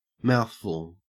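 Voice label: "mouthful", Australian English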